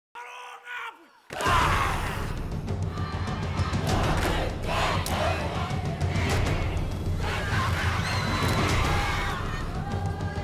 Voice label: Kapa Haka Promo